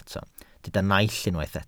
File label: "naill", welsh